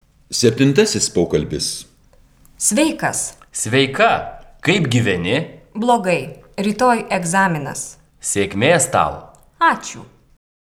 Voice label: Dialog